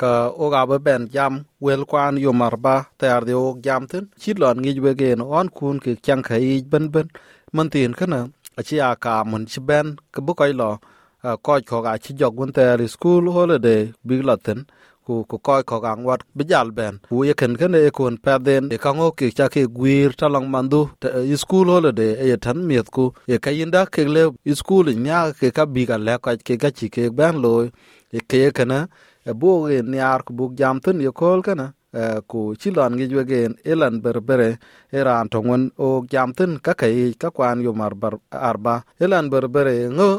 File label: dinka, podcast; School holiday activities for kids; SBS Dinka